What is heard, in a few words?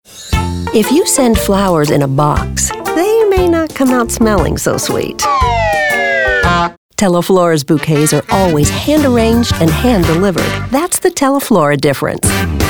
VoiceOver